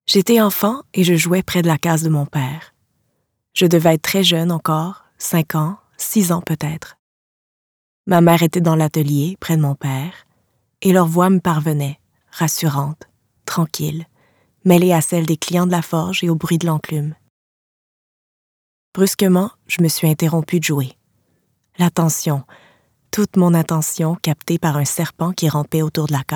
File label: french canadian narration